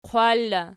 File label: "qwal", Archi